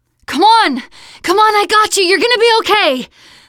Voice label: revive friend loud, teen girl